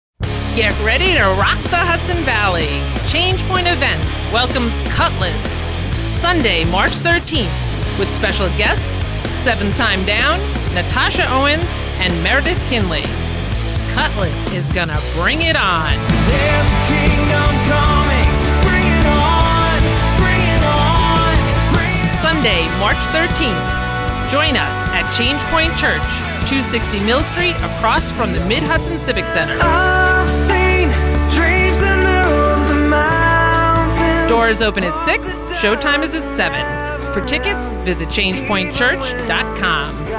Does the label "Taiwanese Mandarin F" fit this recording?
No